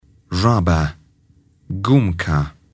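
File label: "rubber", English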